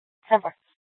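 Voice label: Scottsdale PD North Dispatch; Nth Dispatch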